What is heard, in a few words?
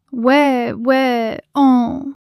fluster